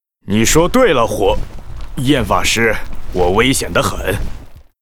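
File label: Dragonhawk pissed, Chinese; 龙鹰骑士（魔兽争霸3）; 人类; That's right, pal...adin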